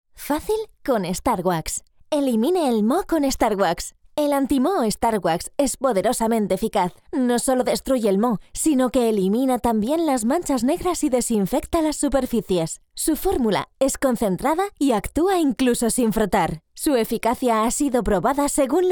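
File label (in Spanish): e-learning, Spain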